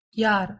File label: "jar" Bosnian